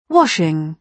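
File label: "washing", British English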